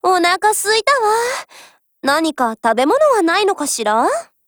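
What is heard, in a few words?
DIALOGUE Japanese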